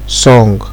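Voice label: "song" Nigerian Pidgin, male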